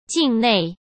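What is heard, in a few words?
"境内", Mandarin Chinese; chinese-flashcards; hsk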